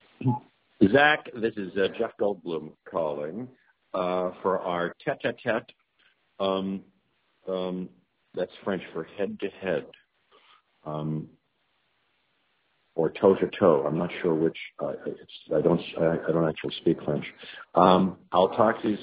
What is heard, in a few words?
voicemail; Jeff Goldblum; while we were trying to connect for an interview